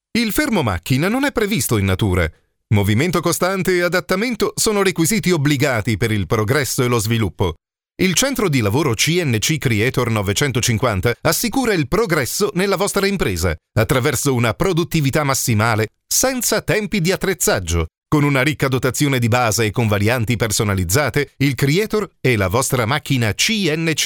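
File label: Italian male voice over, upbeat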